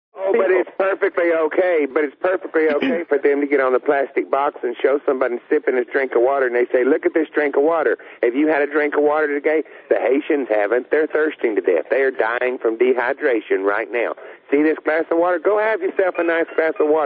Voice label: rant